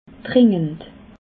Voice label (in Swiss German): "dringend", alsace